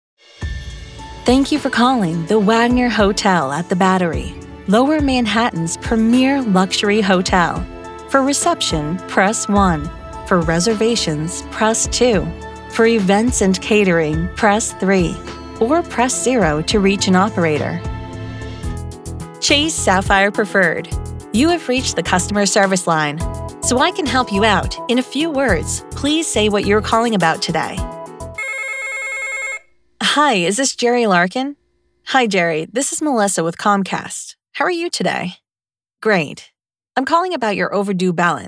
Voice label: TELEPHONY